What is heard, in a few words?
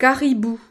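"caribou", French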